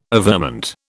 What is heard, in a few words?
"averment", British